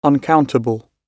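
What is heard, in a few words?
"uncountable" British English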